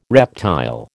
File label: "reptile", American